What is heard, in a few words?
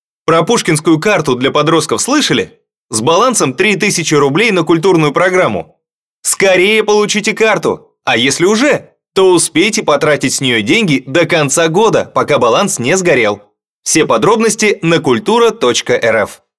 Male Vo; Pushkin Card; Male 20s